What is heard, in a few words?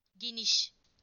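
"wide", Turkish; PictureDictionary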